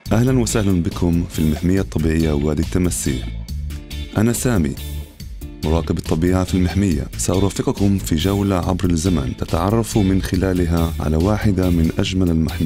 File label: Arabic; Crocodile Valley